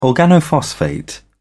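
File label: "organophosphate", British English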